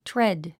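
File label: "tread", English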